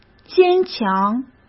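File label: "坚强", Mandarin Chinese; chinese-flashcards; hsk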